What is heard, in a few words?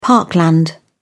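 "parkland", British English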